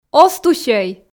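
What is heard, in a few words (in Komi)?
озтусьӧй, Komi, female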